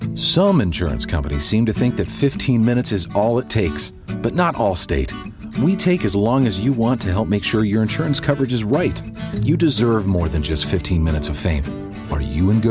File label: English American